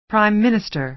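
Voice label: "prime minister", American English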